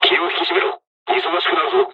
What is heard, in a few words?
dialog, dialogs japanese; War Thunder